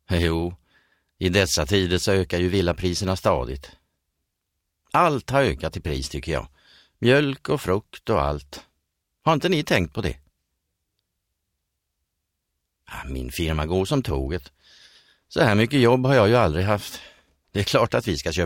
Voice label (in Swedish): svensk man